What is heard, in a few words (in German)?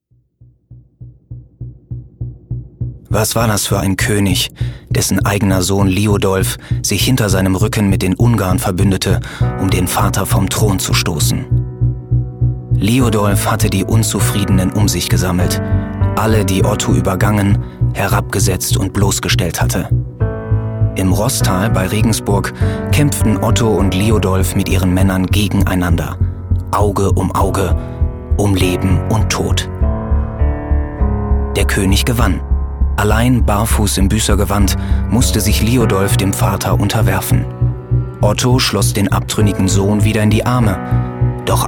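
Schauspiel Neutral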